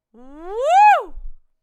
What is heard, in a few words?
Throw The Baseball, breathiness; Exercises for Breathiness